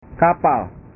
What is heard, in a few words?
"kapal", bahasa